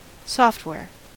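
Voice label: "software", American English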